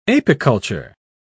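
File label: "apiculture", British English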